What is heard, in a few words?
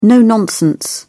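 "no-nonsense", British English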